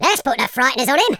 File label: brilliant, Footy Fan